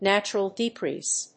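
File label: "natural decrease", English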